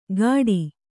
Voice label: "ಗಾಡಿ", Kannada

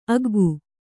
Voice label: "ಆಗ್ಗೆ", Kannada

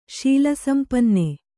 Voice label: "ಶೀಲಸಂಪನ್ನೆ", Kannada; śila sampanne; noun; = ಶೀಲವತಿ.

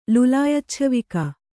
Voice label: "ಲುಲಾಯಚ್ಛವಿಕ", Kannada

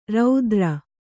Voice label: "ರಾತ್ರೆ", Kannada

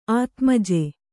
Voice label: "ಆತ್ಮಜ", Kannada

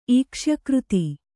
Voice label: "ಈಕ್ಷ್ಯಕೃತಿ", Kannada

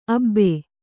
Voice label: "ಅಬೆ", Kannada

